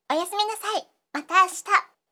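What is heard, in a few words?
"oyasumi mata ashita", Japanese; aisatsu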